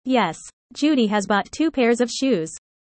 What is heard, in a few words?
user fast; how tall